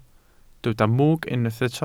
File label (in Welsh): "mwg", Welsh